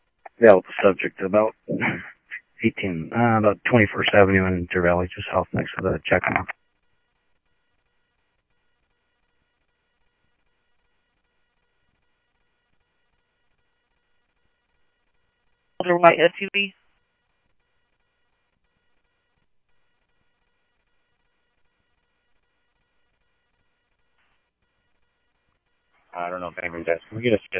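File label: Phoenix police department, scanner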